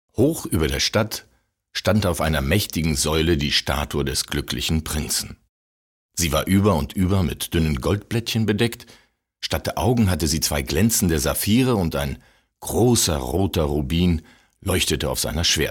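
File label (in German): Erzählung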